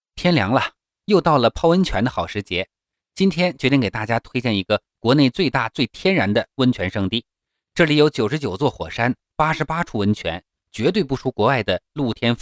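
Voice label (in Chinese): 游记解说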